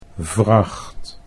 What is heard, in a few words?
"vracht", Dutch